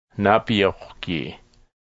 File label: "whiskey", Blackfoot